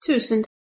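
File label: "tuh2sont", Proto-Indo-European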